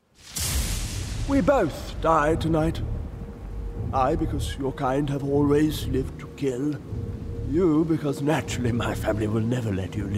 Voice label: Character Old Evil Lord RP

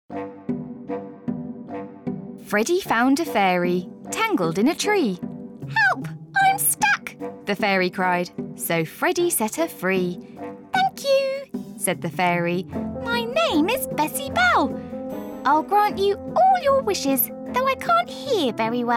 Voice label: Narration Kids Audiobook

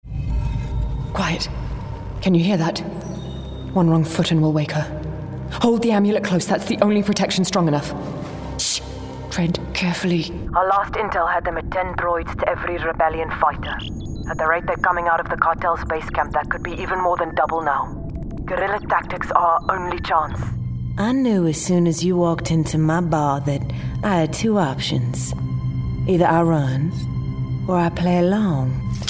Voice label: Gaming Character

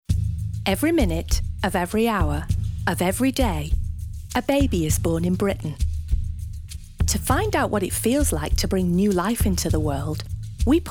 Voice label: Doco; One Born

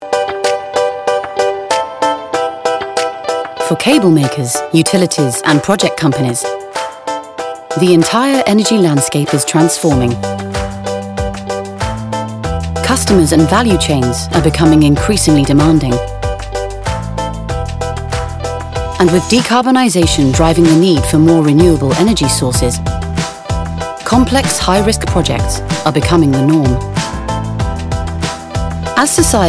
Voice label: Explainer; Borealis

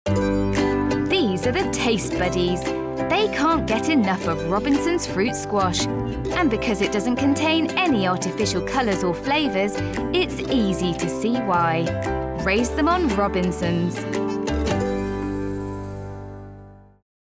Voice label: Commercial; Robinson

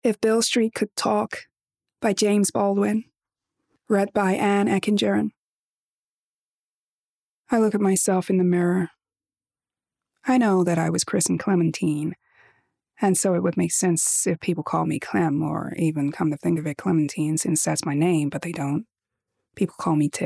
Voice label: Bookread; Beale Street

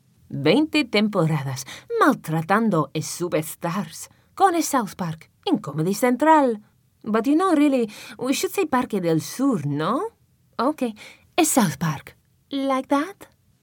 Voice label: Spanish

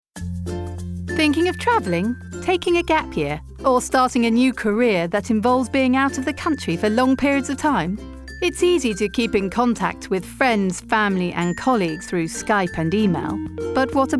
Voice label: uk; postbox

